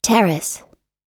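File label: "terrace", English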